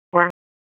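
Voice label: Police District Dispatch, p25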